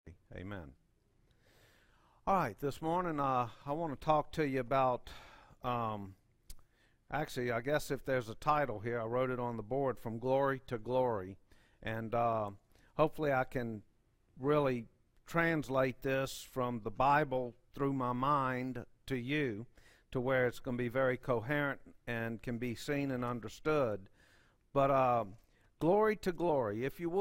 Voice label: Preaching